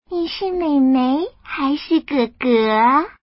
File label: woman; mahjong ningxia